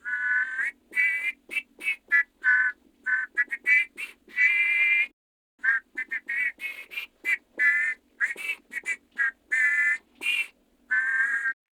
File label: Patrol, Male